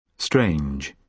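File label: "strange", British English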